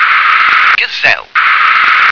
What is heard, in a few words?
"gazelle", English